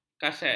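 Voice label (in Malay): "kaset", Malay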